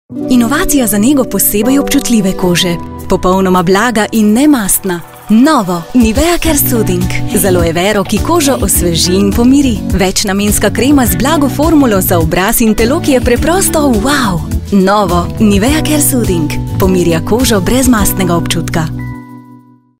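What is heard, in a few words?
comercial, Slovenian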